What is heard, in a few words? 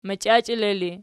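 "machiachele" ergative, Archi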